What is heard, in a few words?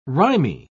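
"rimy", British English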